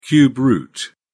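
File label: "cube root", British English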